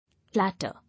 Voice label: "Latter", English